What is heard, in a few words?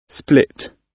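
"split", British English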